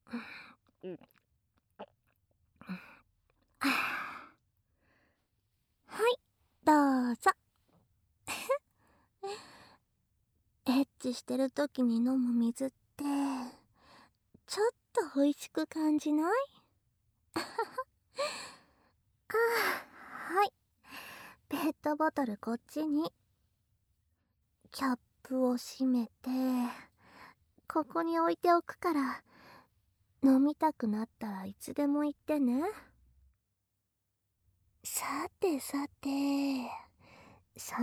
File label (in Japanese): ピストン水音なし カウントダウンなし 射精ボイスなし, ASMR